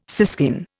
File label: "siskin", Indian